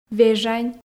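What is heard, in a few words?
"вежань", Komi, female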